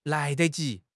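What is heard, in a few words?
"lai2 de5 ji2", Mandarin Chinese; HSK4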